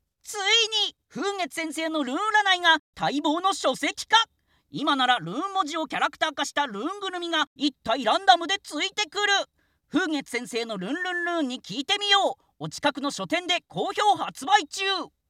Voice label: male